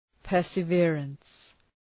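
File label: "perseverance", English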